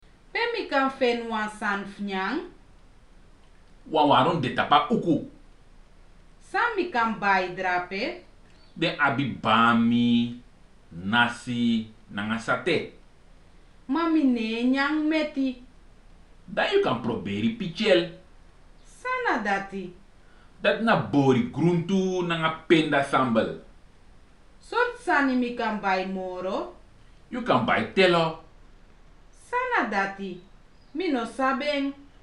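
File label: dialogue, Sranan; PeaceCorps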